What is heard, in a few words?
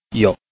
"you5", Chinese